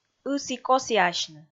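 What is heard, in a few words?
"ысі-косіасьны", Komi, female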